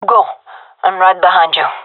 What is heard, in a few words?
following friend, gendarmerie fem; vo